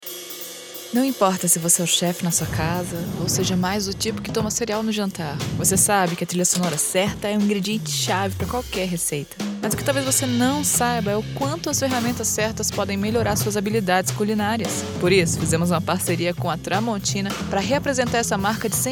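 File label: commercial ad warm charming carioca female brazilian portuguese voiceover; spotify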